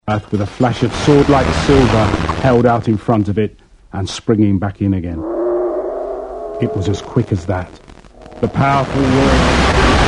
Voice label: sweden english